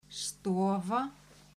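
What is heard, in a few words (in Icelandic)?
"stofa", Icelandic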